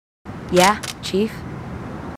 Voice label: marines female, marine tough

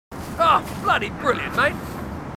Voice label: marines, aussie; h2dialogue

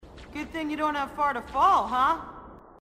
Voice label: female marine, sassy

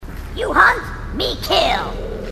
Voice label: grunt; h2dialogue; grunt crazy

